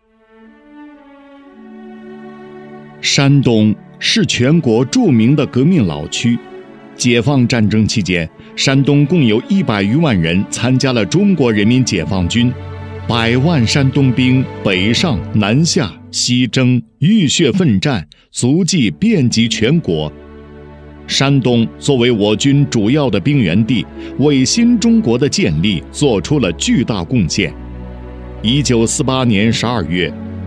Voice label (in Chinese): 男 城市宣传片; 男30-柔和 大气浑厚; 山东兵源地